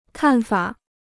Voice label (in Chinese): "看法", Chinese